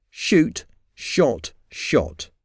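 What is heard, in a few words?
"shoot shot shot", English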